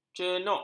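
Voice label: "cenuk", Malay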